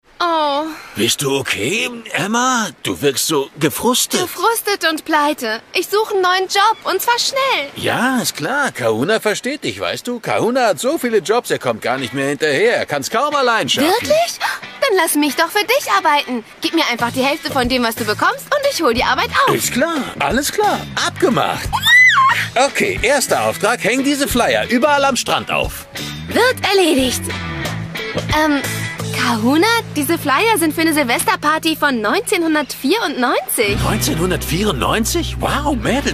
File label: female german commercial; ideasonora